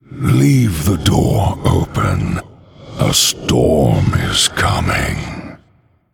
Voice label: Male Undead